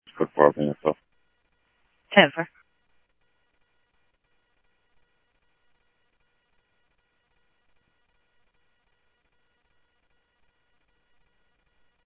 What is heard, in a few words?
Tempe Police, scanner audio